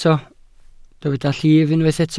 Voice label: "llif", Welsh

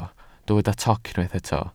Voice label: "toc", Welsh

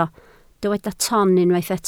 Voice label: "ton" Welsh

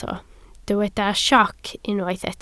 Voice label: "sioc" Welsh

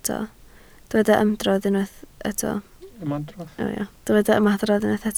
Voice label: "ymadrodd", Welsh

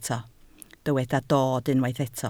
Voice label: "dod" Welsh

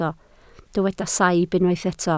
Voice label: "saib", Welsh

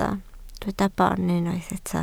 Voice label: "boon", Welsh

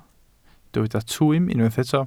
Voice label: "twym", Welsh

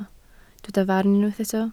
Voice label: "farn" Welsh

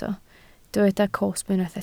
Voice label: "cosb", Welsh

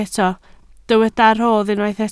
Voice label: "rodd", Welsh

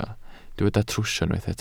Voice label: "trwsio", Welsh

